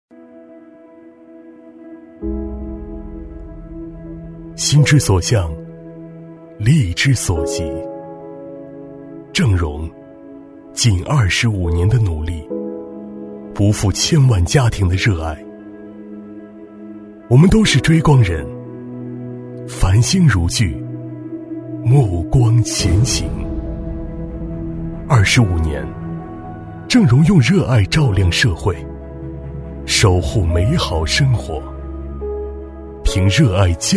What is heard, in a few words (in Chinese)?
男 企业宣传 走心抒情; 激情大气; 大气浑厚; 正荣集团